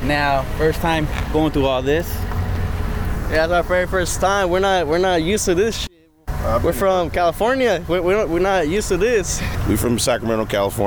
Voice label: Tsunami VOX POP